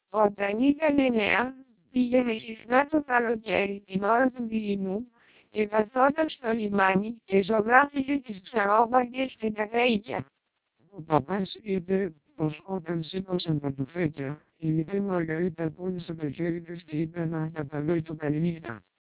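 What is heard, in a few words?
twelp 300 Greek, vocoders lowrate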